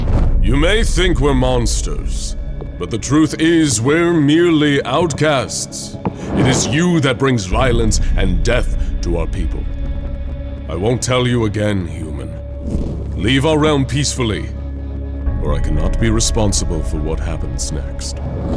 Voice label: Animation, voice over